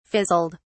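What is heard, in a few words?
"fizzled", British English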